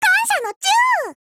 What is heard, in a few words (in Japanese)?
VO jp